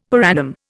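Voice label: "per annum", Indian English; Dictionary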